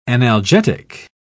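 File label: "analgetic", British English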